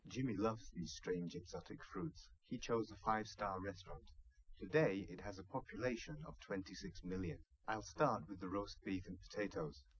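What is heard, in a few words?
male live recording; SiSEC10; underdetermined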